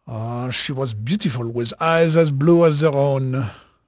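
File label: French male, voice; Pirates Of The Caribbean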